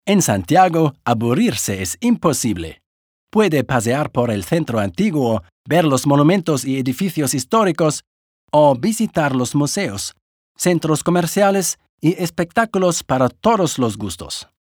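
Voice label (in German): Spanish Kommentar Freundlich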